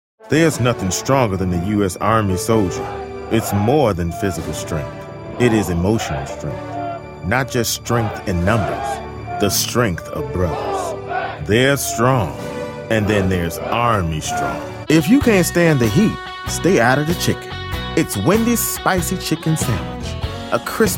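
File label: commercial voice over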